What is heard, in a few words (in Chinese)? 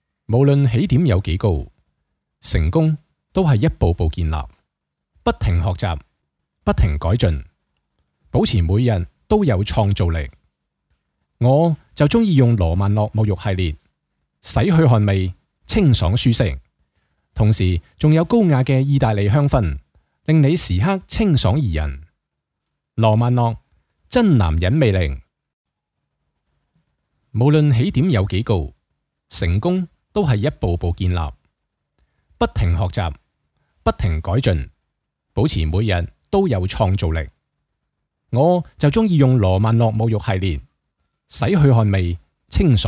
粤语; 罗曼诺